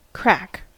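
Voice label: "crack", American English; pronunciations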